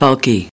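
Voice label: "bulky", English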